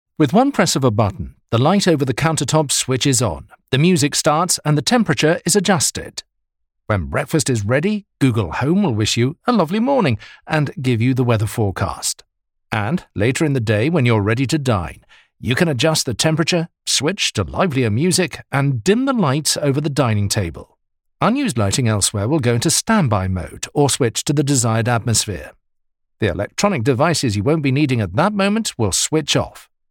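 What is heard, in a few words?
English, British, male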